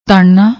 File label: "tananna", Punjabi; ਤਣਨਾ